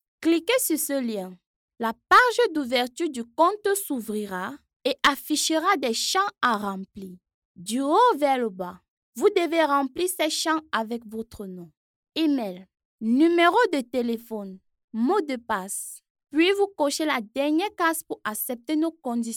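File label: African French, female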